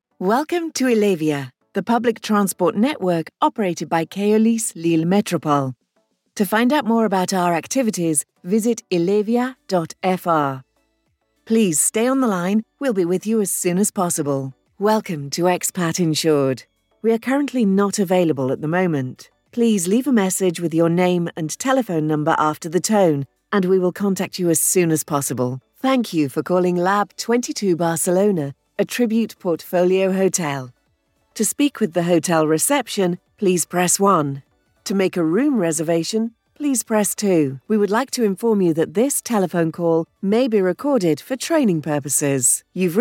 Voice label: phone system ivr

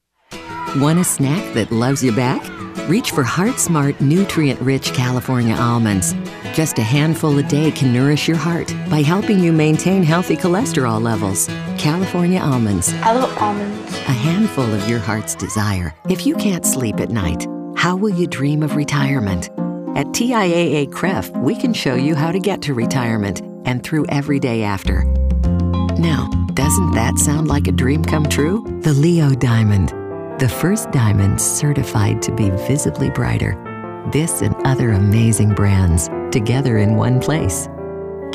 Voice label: american female commercial

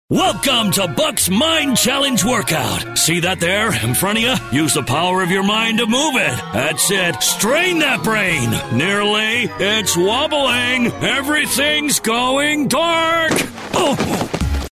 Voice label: crazy american

